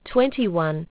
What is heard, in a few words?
aus, aussie prompts